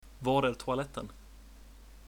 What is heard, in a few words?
toilet, swedish